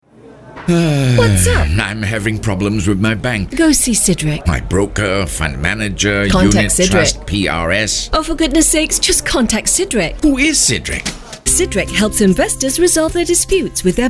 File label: Call English